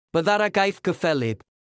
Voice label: Welsh proverb